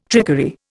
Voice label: "trickery", Indian